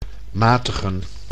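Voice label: "matigen", Dutch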